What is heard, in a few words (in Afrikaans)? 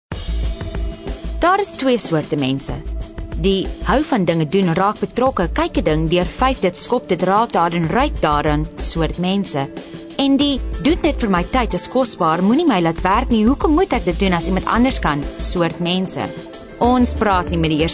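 Afrikaans Hard Sell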